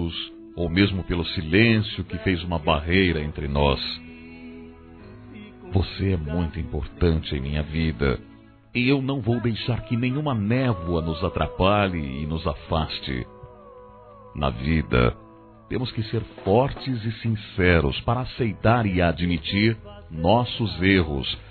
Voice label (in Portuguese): desculpe por tudo, m; Desculpas; Telemensagens 2017